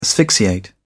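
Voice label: "asphyxiate", English, British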